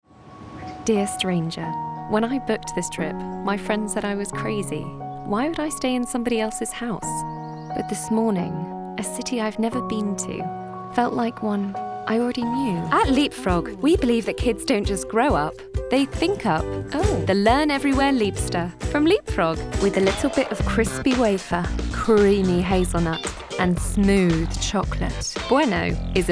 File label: commercial reel